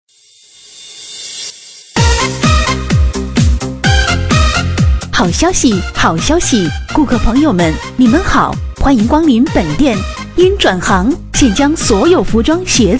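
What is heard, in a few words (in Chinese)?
女促销 鞋子清仓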